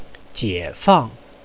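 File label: "jiefang", Mandarin Chinese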